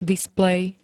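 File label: "displej", Slovak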